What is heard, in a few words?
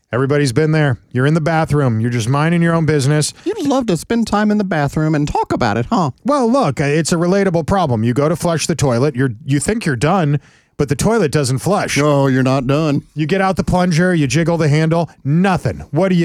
RADIO AD; nicks plumbing radio ads